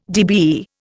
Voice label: "DBE", Indian